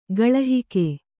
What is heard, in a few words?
"ಗಾಳಿಹಾಕು", Kannada